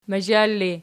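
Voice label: "mazhal" ergative, Archi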